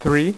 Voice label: "6", poker; iPoker 2000